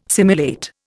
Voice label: "simulate", Indian